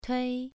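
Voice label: "tui" Mandarin Chinese, second tone, pronunciation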